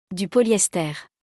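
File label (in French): "Du polyester", French; vocabulaire; le lexique de l'habillement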